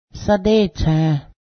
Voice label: gluttonous, Cayuga; sadétsę; gluttonous (v.)

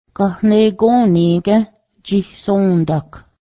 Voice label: is the strawberry juice made, Cayuga; gahnegǫní gę́h jihsǫ:dáhk

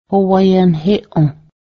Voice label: he is a learned person, Cayuga; howayęnhéˀǫh

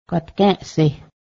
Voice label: i am looking at it, Cayuga; gatgę́ˀshe

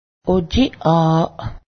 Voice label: lace, Cayuga; ojiˀa:ˀ

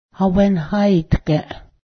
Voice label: italian, Cayuga; Hawęna̱hitgę

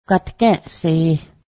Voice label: let me view it, Cayuga; gatgę́ˀse

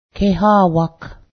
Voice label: daughter, Cayuga; kehá:wak

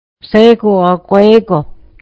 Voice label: hello everyone, mohawk

